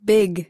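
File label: "big", US English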